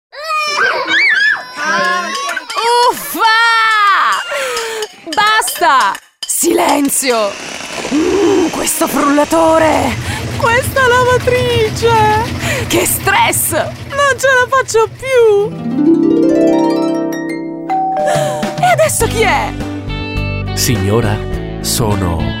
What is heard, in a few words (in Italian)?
radio casalinga; voxpop